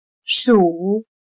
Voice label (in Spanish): "暑", Chino